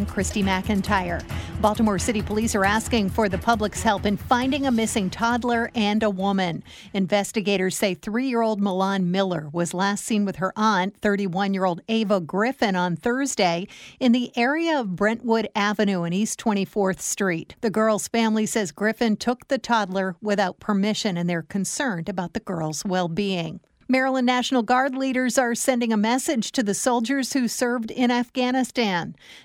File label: News